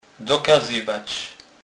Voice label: "dokazywac", kurpiow

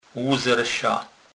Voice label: "luzrzec", kurpiow

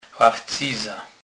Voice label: "lachcyza", kurpiow

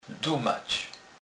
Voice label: "dumac", kurpiow

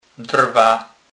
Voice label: "drwa", kurpiow

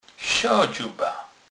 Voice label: "dzuba", kurpiow

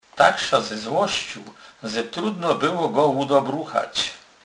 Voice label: "ludobruchac", kurpiow

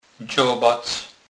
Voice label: "dzobac", kurpiow

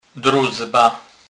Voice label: "drozba", kurpiow

